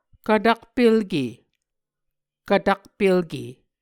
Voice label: "kvtakpelke", Muscogee

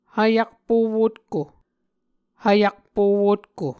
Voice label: "hvyakpo wotko", Muscogee

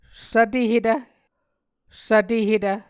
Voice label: "svtehetv", Muscogee

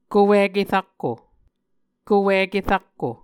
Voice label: "kowike rakko", Muscogee; Mvskoke Words with Voicing Consonants; A Dictionary of Creek/Muskogee; SIL International

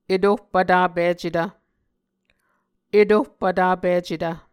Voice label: "etohpvtapicetv", muscogee

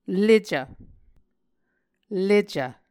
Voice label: "lecv", Muscogee

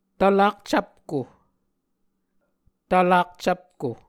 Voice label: "tvlak-cvpko", muscogee

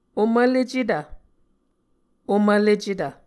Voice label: "omvlecetv", Muscogee

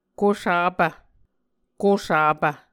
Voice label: "kusapv", Muscogee

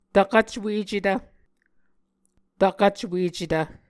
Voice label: "tvkvcwecetv", Muscogee

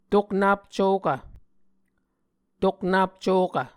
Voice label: "toknap cokv", Muscogee

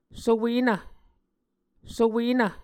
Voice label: "sowenv", Muscogee

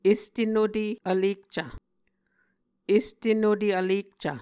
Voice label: "este nute vlekcv", Muscogee

